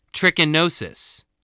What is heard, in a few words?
"trichinosis", voice